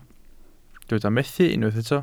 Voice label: "methu", Welsh